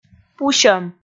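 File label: "пусьӧм", Komi, female; kpv audio dict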